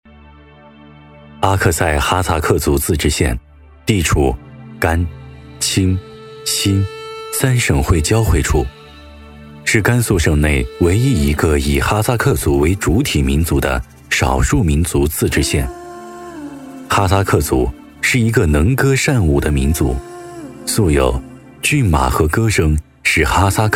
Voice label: 男 纪录片：人文地理; 哈萨克族; 抒情温暖 自然诉说

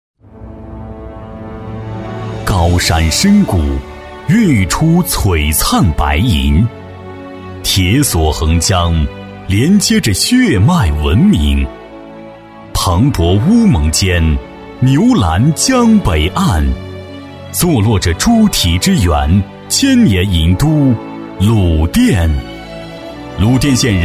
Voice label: 男 专题片配音 大气豪迈; 男B44-明亮硬朗

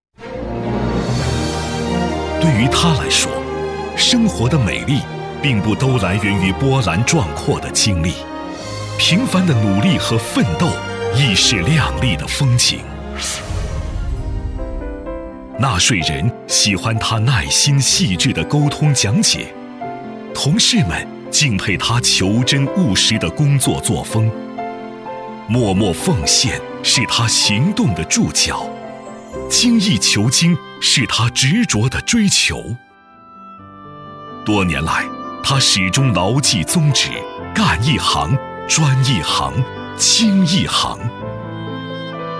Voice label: 男 感性 温柔 颁奖类 先进女性人物

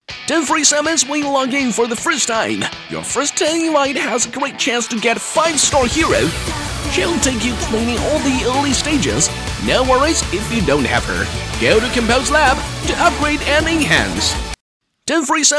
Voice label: 男, 英语配音, 年轻, 激情, 信息流广告; 中英双语 轻松活泼

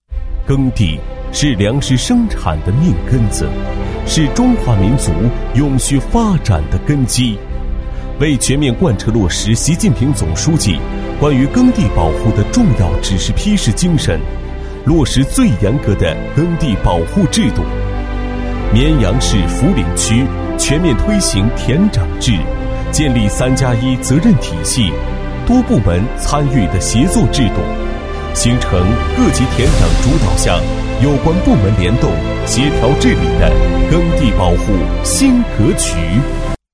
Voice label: 男 抒情; 田长来啦！; 男D2-年轻气质

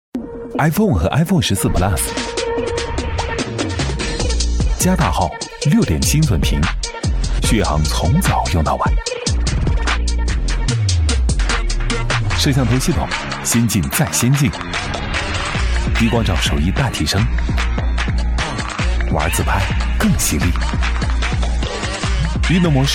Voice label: 男 【科技年轻品质】 广告, 磁性沉稳 高端大气; iphone14; 男139-【科技年轻品质】iphone14广告

